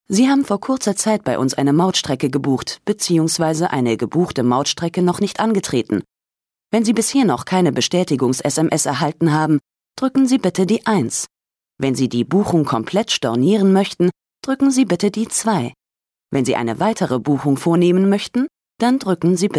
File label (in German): Kommentar Freundlich